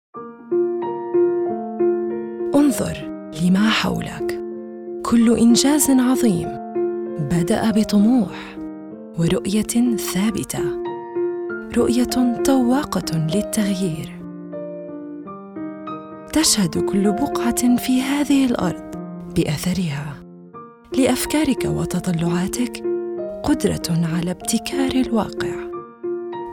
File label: Arabic Corporate Narrations